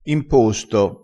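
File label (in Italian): "imposto", crespinese dialettale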